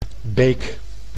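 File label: "Beek", Dutch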